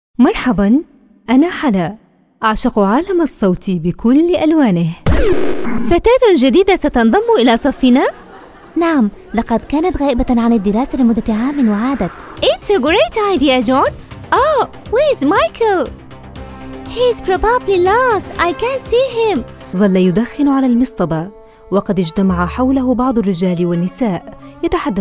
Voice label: syrian, voiceover